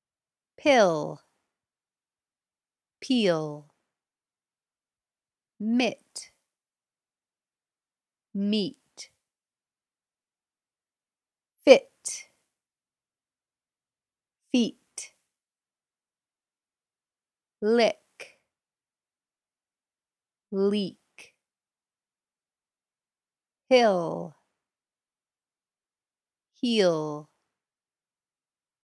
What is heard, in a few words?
Pronunciation Practice